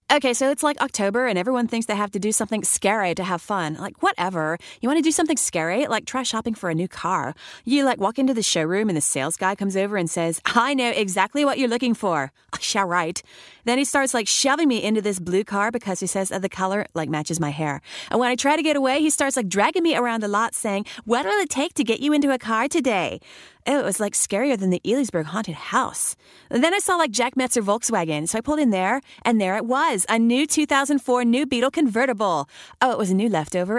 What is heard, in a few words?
Valley Girl; Jack Metzer Auto Group